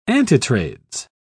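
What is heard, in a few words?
"antitrades", British English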